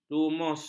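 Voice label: "lumus", Malay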